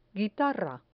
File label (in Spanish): "guitarra", Spanish